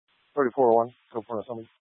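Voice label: Incident Tactical 3, Scanner audio recording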